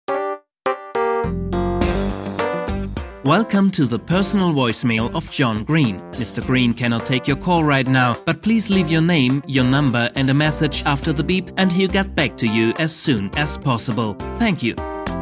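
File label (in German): warteschleife englisch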